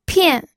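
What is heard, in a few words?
"pian", Mandarin Chinese, fourth tone; pinyin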